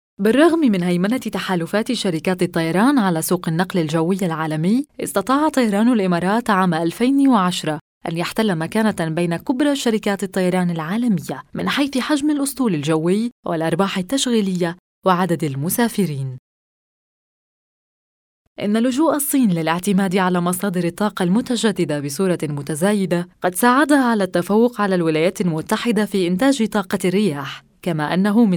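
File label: Arabic, female, corporate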